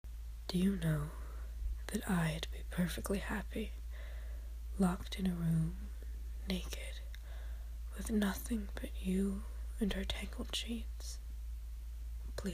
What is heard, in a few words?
intimate, poetic, erotic, romantic, sensual, short; F4A; Behind Closed Doors